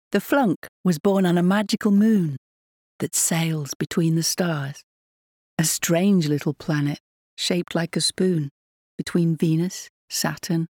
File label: Poem. Imaginative, Relaxing, narration; Audio Book; Flunk